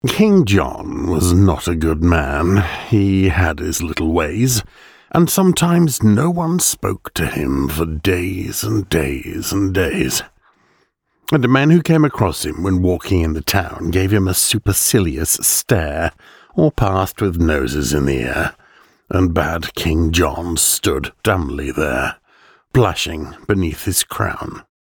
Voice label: english british podcasts